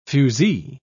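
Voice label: "fusee", British English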